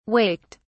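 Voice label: "waked", British English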